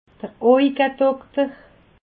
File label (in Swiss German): "auwedokter", alsace